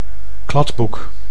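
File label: "kladboek", Dutch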